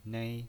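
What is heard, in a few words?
"nei", pinyin voice, Mandarin Chinese, first tone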